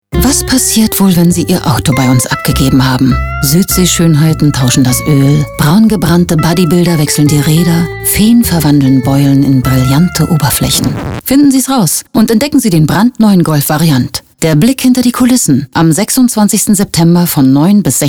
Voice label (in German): Automotive Radiowerbung